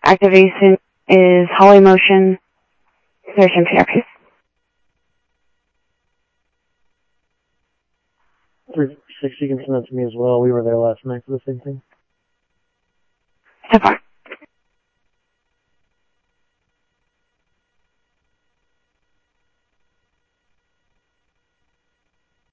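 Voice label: Sheriff West County, scanner audio trunk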